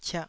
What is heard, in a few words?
"ciah2", taiwanese